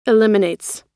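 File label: "eliminates", American English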